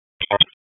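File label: Police Southwest District Disp, radio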